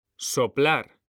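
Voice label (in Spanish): "soplar", male; mekanta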